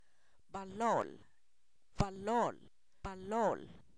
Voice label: renacuajo (bilolo), Zapoteco, san miguel albarradas; animales; INALI

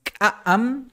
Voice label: fuerte - "K'a'am", Maya

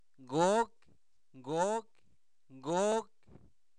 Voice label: cuándo, Zapoteco, santo domingo albarradas; INALI; preguntas

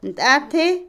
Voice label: Otomí, mujer; Acciones; cubeta, cubo; INALI

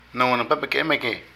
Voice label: Incendio premeditado, Zapoteco de Petapa; Vocabulary

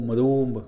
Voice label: "esclavo", Mixe, hombre; Sociales

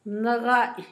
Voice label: quinto, Zapoteco, Asunción Ocotlan; Números; INALI

